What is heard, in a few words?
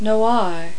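"noir", French; Virtual Casino: Secret Fantasies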